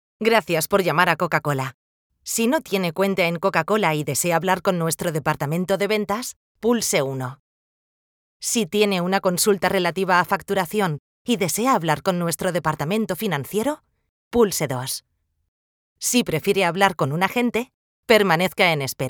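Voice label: Spanish, female, IVR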